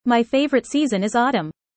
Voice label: fast